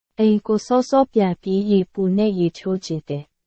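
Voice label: Myanmar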